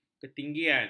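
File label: "ketinggian", Malay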